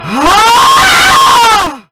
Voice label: angry korean